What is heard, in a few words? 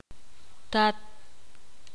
ixil